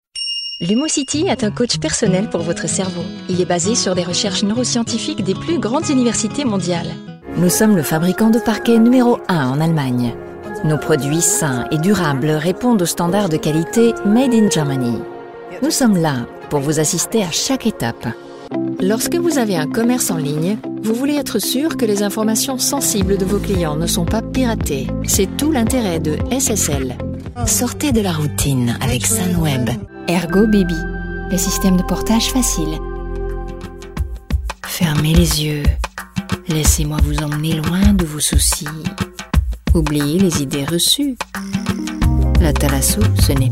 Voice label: French Commercials

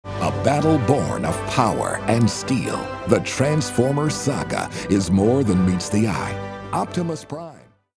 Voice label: narrative

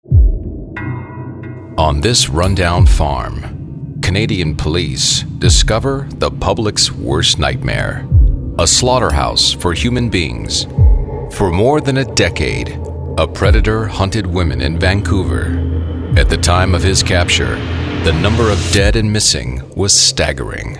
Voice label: ominous

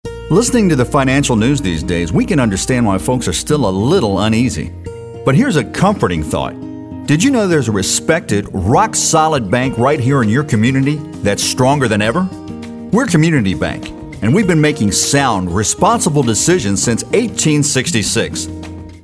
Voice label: caring

